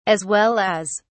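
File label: "as well as", British English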